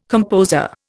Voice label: "composer", Indian

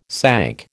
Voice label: "sank", American

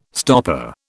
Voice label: "stopper", British

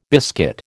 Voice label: "biscuit", American

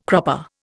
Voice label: "cropper", Indian

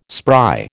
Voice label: "spry", American

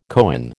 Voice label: "coign", American

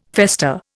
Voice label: "fester", Indian

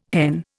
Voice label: "hen", Indian

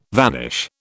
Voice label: "vanish", British

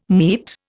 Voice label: "meat", Indian

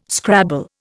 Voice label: "scrabble", Indian

